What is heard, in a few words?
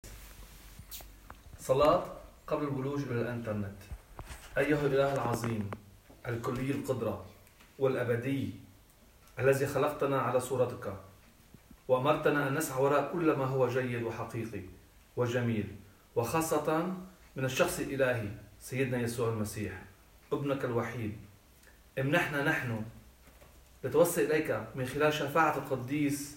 internet prayer arabic